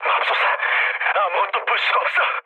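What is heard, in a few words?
Korean searchlight mood low, speech; War Thunder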